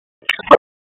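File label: Wake Sheriff's Office Judicial, p25; WSO Judicial 1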